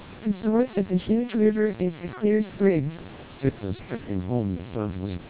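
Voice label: TWELP 400 bps Vocoder, American speech, SNR=10dB, NCSE Disabled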